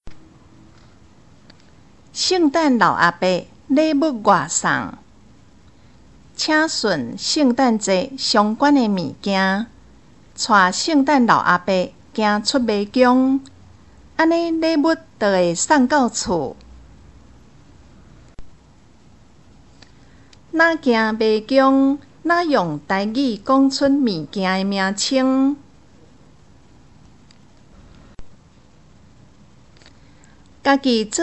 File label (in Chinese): 臺語; 聖誕節